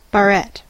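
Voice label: "barrette", English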